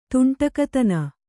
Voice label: "ದಾಂಡಿಗತನ", Kannada